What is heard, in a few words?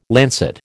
"lancet", American